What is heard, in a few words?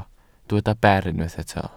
"ber", Welsh